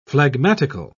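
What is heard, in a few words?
"phlegmatical", British English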